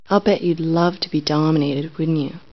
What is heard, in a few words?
betchya'd love 2b dominated, silly lo-fi orgasms